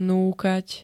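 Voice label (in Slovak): "núkať", Slovenské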